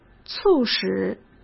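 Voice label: "促使", Mandarin Chinese; hsk; chinese flashcards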